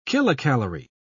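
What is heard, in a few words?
"kilocalorie", British English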